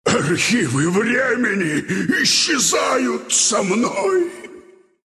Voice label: death, ru; Dota 2